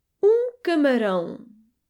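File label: "crevette", French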